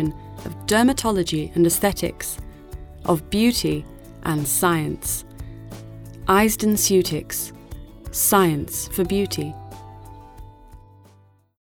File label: female british english skin comercial